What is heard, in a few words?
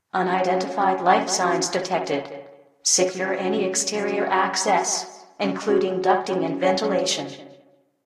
aliens, Announcements; civ13; civ14